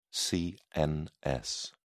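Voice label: "cns", English, British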